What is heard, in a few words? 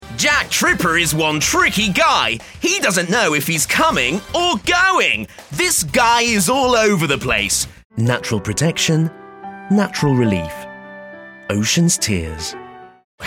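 British English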